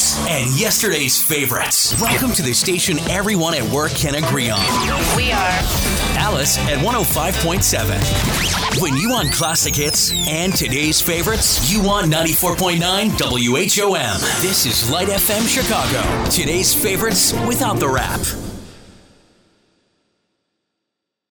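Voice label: radio adult contemporary soft rock; radio imaging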